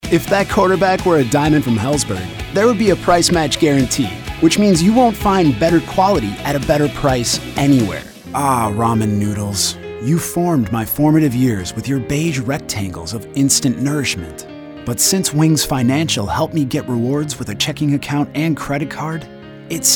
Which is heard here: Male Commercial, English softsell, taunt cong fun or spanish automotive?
Male Commercial